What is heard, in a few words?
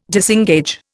"disengage", Indian